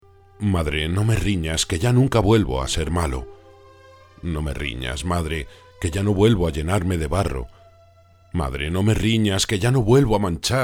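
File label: spanish impersonations, Spain; voice actor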